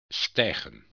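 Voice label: "stijgen", Dutch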